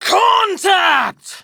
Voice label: Enemy Spotted Female; Warframe; Tau; Prime Grineer Female; Battle